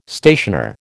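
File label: "stationer", American English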